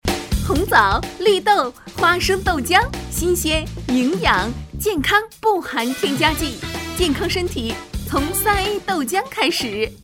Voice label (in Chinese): 广告 食品饮料 豆浆 活力; 3A